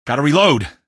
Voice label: "gotta reload", male; npc; Garry's Mod; hl2